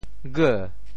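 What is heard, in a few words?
"geu2", Teochew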